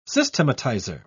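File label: "systematizer", British English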